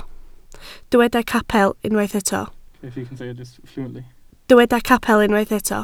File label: "capel", Welsh